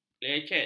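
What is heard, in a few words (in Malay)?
"lecet", Malay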